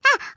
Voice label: surprise